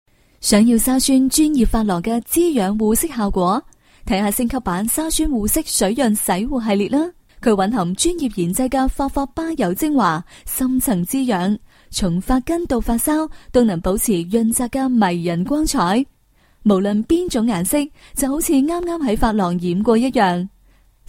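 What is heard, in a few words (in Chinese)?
女粤16 港式粤语广式粤语Commercials 娓娓道来 积极向上 时尚活力 亲切甜美; Commercials-VS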